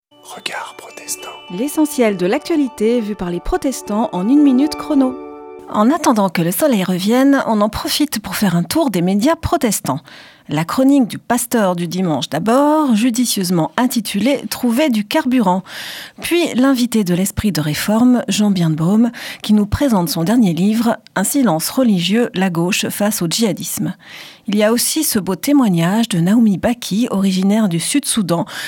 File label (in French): Spot radio; Regards protestants